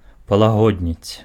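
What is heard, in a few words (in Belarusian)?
"палагоднець", Belarusian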